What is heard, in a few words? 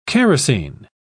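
"characin", British English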